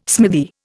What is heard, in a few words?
"smithy", Indian